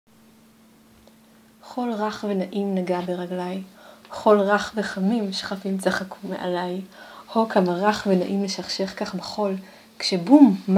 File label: Hebrew